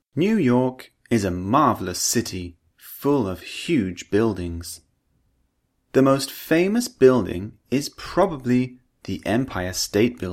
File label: Vowel Sounds, British English; Udemy - Perfect English Pronunciation British English